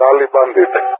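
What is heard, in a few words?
"tally bandit", English, GREECE; Messages; Allied Flight; DCS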